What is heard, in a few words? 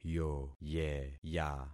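"yoyeya", concatenated syllables; Affixing experiment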